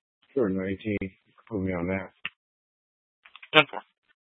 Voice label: scanner, Tempe PD; Rgnl Wireless Co-op (RWC)